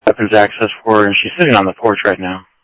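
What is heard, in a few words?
Simulcast police department, scanner audio; Mesa Simulcast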